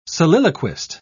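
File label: "soliloquist", English, British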